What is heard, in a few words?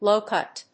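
"low cut", English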